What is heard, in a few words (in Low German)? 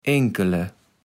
"Enkele", kaevels platt